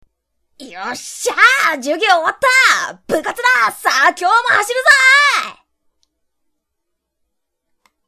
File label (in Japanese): genki shounen